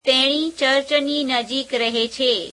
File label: "she lives near the church", gujarati